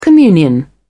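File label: "communion", British English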